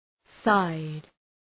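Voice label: "side", English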